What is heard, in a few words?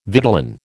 "vitellin", American